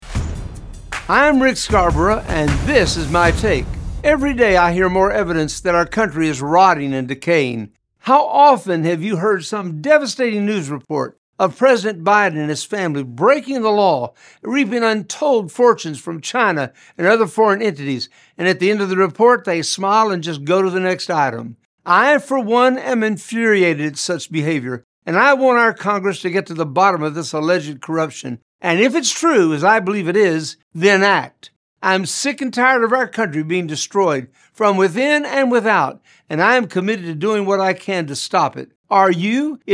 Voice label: Radio Spot; A Rotting Country